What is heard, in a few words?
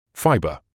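"fibre", British English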